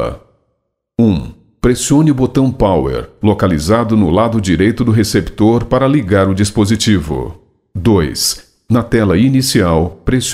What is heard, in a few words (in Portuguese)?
Locução Dolby